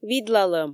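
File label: "видлалӧм", Komi, female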